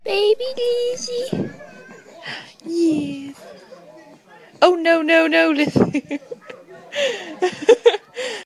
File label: baby oh no